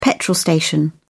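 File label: "petrol station", English, British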